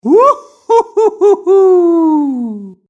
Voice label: vox man